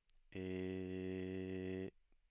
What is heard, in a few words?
low tone